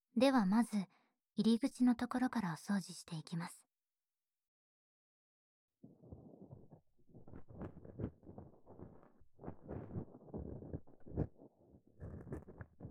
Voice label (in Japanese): 耳かきのご奉仕をしていきますね SE無, 暖炉音無し; Track7 では、耳かきのご奉仕をしていきますね。